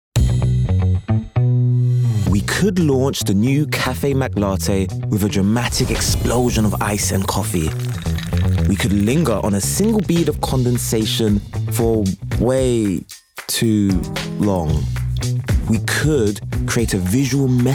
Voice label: male english commercial; mcdonalds; southern and northern england